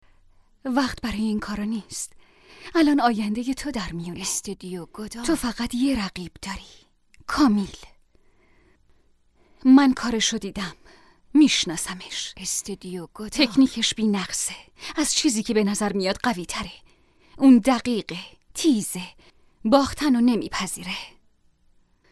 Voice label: dub